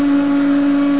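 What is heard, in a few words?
"out", English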